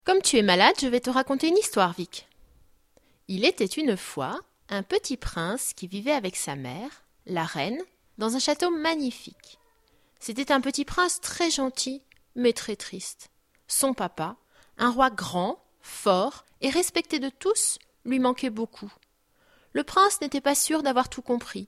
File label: dialogue, French